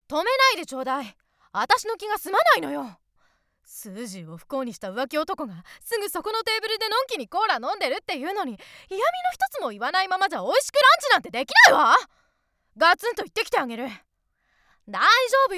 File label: lady